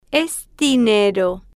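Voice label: Spanish, female, slow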